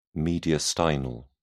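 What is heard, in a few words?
"mediastinal", English, British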